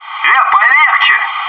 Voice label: ouch, bot